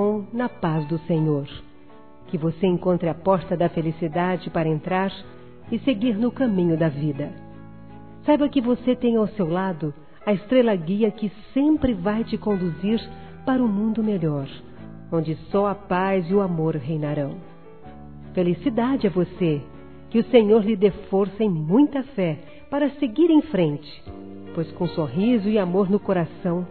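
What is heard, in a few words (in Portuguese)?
PARABÉNS IRMÃ EM CRISTO, Gospel, fem; Telemensagens; Telemensagens 2017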